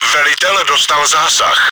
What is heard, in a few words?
commander killed, cz; Velitel dostal zásah.; Czech; Попадание в командира; World of Tanks